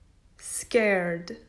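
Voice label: "Scared", English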